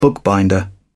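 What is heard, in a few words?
"bookbinder", British English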